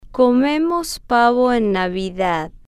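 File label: comemos pavo en navidad, slow, spanish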